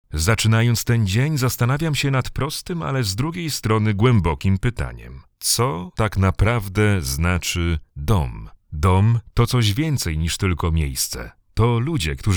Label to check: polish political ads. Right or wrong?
Right